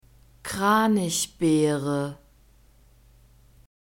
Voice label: "kranichbeere", german; fruits

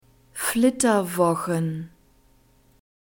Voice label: "flitterwochen", German

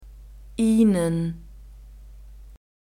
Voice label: "ihnen", German

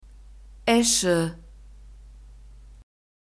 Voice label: "esche", German; nouns gender feminine

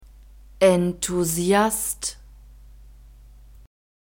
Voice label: "enthusiast", German; masculine

